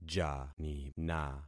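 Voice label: "janina", concatenated syllables; Affixing experiment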